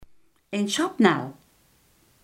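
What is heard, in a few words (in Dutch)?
iemand die er onverzorgd uitziet, Limburgse gesproken woordenboeken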